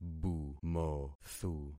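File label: "bumothu", concatenated syllables; Affixing experiment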